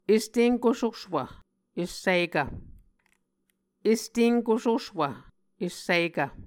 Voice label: "estenkososwv essikv", Muscogee; Mvskoke Words with Voicing Consonants; est-enkososowv-essikv k / g; Martin, Jack B., and Margaret McKane Mauldin. 2023. A Dictionary of Creek/Muskogee

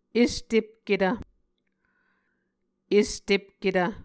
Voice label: "estepketv", Muscogee

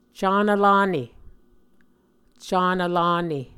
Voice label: "canv-lane", muscogee

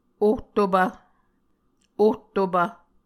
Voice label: "ohtopv", Muscogee

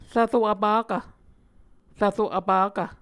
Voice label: "rvro vpakv", Muscogee

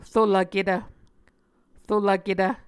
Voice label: "rolvketv", Muscogee

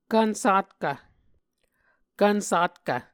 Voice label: "kvnsatkv", Muscogee

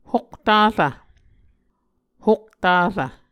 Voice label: "hoktarv", Muscogee

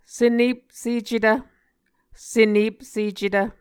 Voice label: "senepsecetv", Muscogee

